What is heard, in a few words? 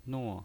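"nuo" fourth tone, pinyin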